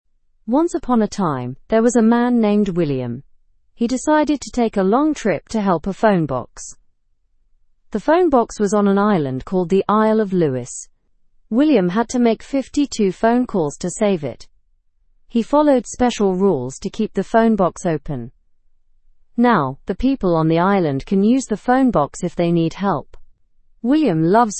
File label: english news beginner normal; man saves old phone box